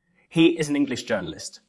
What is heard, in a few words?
Voice Acting, es